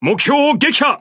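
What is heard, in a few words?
Speech Pilots Torpedo Tangodown, Japanese; World Of Warships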